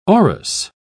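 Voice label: "aurous", British English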